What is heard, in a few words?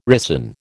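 "ricin", American English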